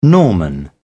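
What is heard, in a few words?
"norman", British English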